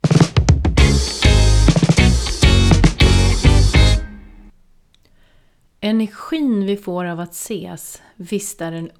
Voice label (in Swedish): Uppläsning blogg; Energin vi får av att ses; Energitips